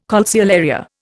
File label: "calceolaria", Indian